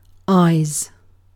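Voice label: "eyes", British English; pronunciations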